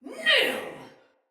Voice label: Attack Sinecure Soldier Female